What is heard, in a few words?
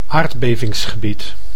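"aardbevingsgebied", Dutch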